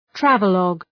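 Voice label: "travelogue", English; {‘trævə,lɒg}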